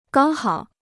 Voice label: "刚好", Chinese